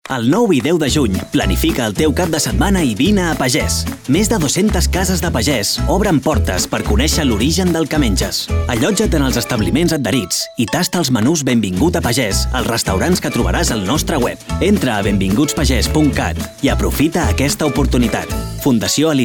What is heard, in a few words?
male catalan food comercial; ideasonora